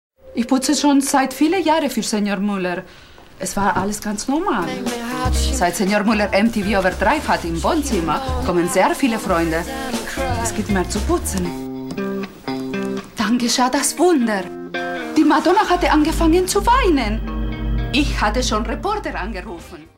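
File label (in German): Werbung, Deutsch mit Akzent Spanisch; Die Madonna weint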